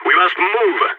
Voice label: radio lets go, balkan; vo